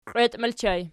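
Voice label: "qieiti" ergative plural, Archi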